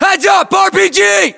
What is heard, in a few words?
threat rpg, US; localized english; battlechatter